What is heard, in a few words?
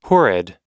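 "horrid", US English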